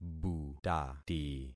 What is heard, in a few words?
"butati", concat syllables; Affixing experiment